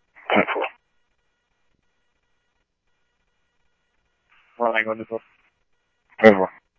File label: Police, scanner audio trunk; H-Scottsdale